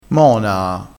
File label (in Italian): "monaa", crespinese